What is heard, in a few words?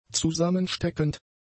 "zusammensteckend", German; adjectives